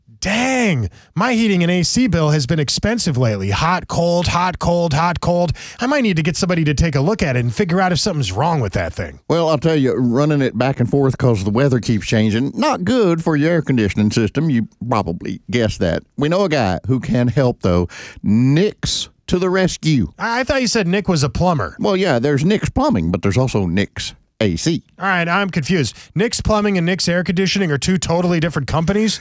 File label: RADIO AD; nicks plumbing radio ads